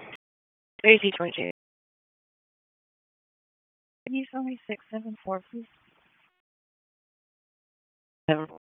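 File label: Raleigh Police Southeast District Dispatch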